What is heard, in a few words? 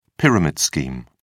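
"pyramid scheme", British English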